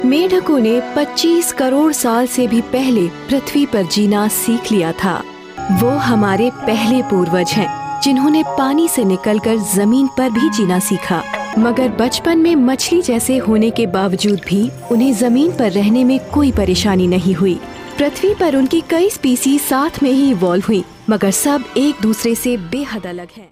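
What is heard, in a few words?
Hindi narration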